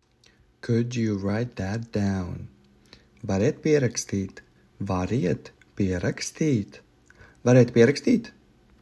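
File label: "Could you write that down", Latvian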